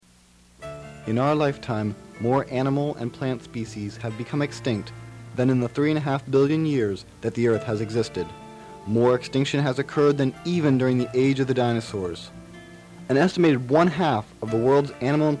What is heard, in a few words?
Rainforest Action Network PSA; Extinction